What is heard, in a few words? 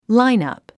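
"lineup", English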